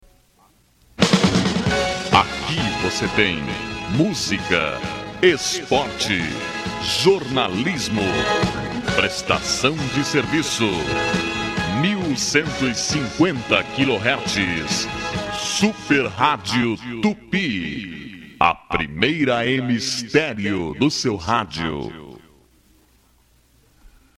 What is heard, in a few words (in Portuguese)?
Vinheta; Radio Tupi; 1150 kHz